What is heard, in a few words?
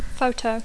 "photo", English; (col.loq) foto